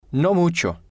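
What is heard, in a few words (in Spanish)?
"no mucho", Spanish, male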